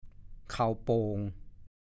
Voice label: "เข่าโป่ง", ภาษาโคราช; เข่าโป่ง / 1. ข้าวเกรียบว่าว

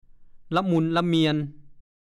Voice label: "ล่ะหมุนล่ะเหมียน", ภาษาโคราช; อ่อนเปลี้ยเพลียแรง

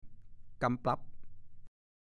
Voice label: "กำปรั๊บ", ภาษาโคราช

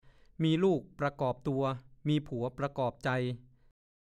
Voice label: มีลูกประกอบตัว มีผัวประกอบใจ, ภาษาโคราช; (สำนวน) หญิงที่มีลูกมีผัวย่อมเป็นครอบครัวที่สมบูรณ์

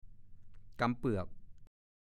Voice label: "กำเปือก", ภาษาโคราช

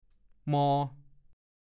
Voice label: "มอ", ภาษาโคราช; ทางสูงชัน ที่เป็นเนินสูง

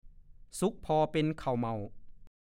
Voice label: "ซุกพอเป็นเข่าเหม่า", ภาษาโคราช; 1. รวงข้าวสุกเหลืองใบตองที่ปลายรวง ส่วนโคนรวงยังเขียวอยู่

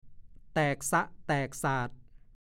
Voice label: "แตกซะแตกสาด", ภาษาโคราช; 1. กระจัดกระจาย

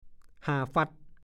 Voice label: "ห่าฟั่ด", ภาษาโคราช; ดูคำว่า ห่าปั๊ด

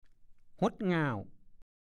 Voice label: "ห๊ดง่าว", ภาษาโคราช; หดจู๋ หดสั้นเข้ามามาก

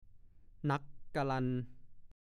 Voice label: "นั่กกะลัน", ภาษาโคราช

